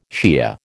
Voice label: "Shiah", American